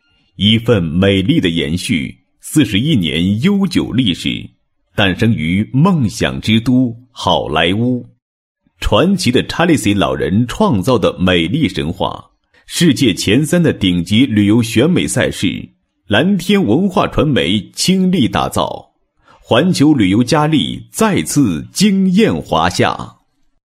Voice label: 国语男声配音, 男27高音经济型; 一份美丽的延续

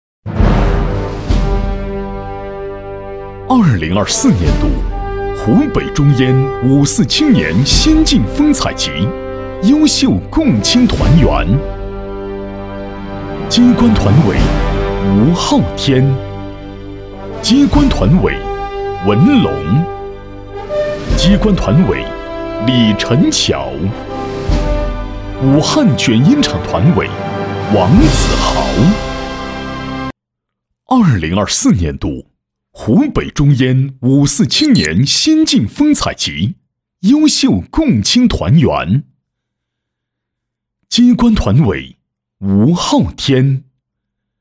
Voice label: 男 专题 颁奖 五四优秀青年

